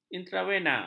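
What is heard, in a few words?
"intravena", Malay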